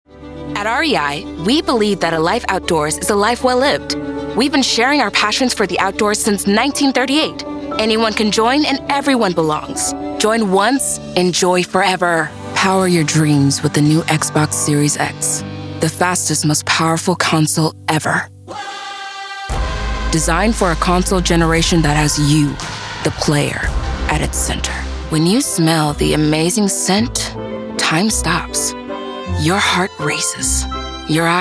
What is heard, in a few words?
women commercial; Warm, engaging, powerful, whimsical, and earnest with my commercial reads.